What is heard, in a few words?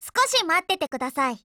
LOADING JP; BrowningHP